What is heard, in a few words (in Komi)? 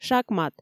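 "шакмат", Komi, female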